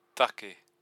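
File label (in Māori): "take", Māori word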